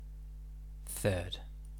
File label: "third", English